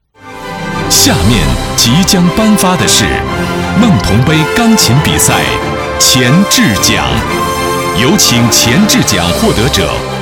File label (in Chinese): 男 颁奖 钢琴比赛; 梦桐杯钢琴比赛; 潜质奖